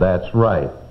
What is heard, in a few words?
"that's right", male; Voice